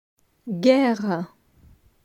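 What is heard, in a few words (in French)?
"Guere", French; grammaire; la negation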